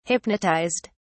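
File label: "hypnotized", British English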